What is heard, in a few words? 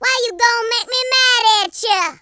you'll regret that, Gangsta; speech